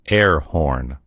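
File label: "air horn", American English; gcompris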